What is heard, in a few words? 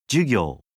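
"jugyou", Japanese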